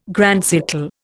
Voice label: "grand siècle", Indian

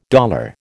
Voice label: "dollar", American

